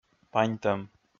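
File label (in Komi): "паньтӧм", Komi, male; audio dict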